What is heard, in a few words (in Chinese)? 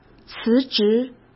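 "辞职", Mandarin Chinese; hsk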